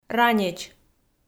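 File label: "ранеч", Komi, female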